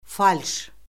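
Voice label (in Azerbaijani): "falş", Azerbaijani; Orfoqrafiya Lüğəti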